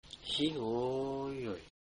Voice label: thanks, ainu